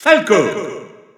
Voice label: French Announcer; SSBU